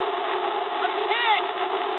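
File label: aircraft destroyed mood low, speech English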